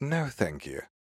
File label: Emote Negative